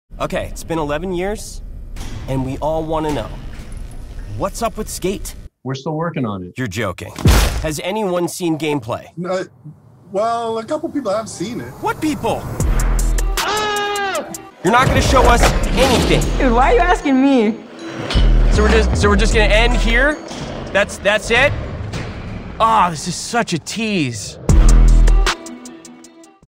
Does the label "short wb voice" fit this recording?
No